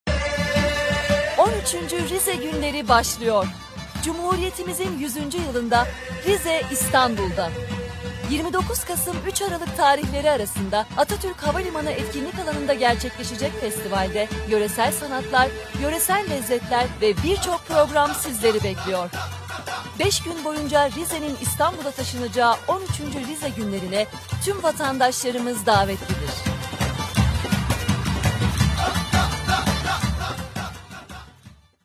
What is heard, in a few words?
turkish announcements